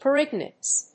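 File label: "peritonitis", English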